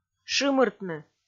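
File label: "шымыртны", Komi, female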